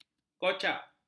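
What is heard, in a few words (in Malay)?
"kocak", Malay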